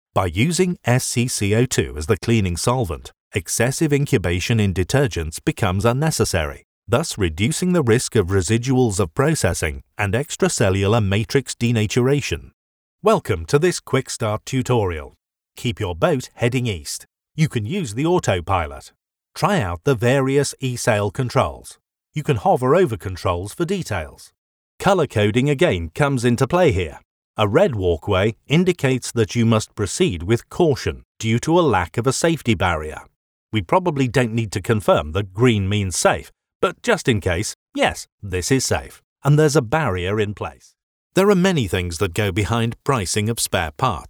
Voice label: elearning